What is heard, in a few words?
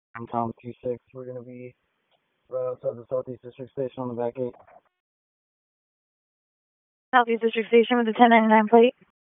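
Police Downtown District Dispatch, p25; Raleigh; wake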